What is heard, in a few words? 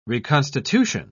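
"reconstitution", British English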